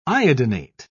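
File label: "iodinate", British English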